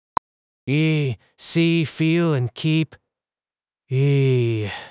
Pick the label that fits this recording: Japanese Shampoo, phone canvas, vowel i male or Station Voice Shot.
vowel i male